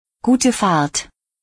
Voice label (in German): "gute Fahrt", deutsch